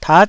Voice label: "tat9", taiwanese Syllables

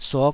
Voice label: "suk5", taiwanese Syllables

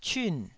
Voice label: "cyun4", Taiwanese syllable

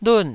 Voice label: "lun4", Taiwanese syllable

